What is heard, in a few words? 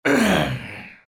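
pain, Chinese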